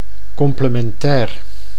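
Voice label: "complementair", Dutch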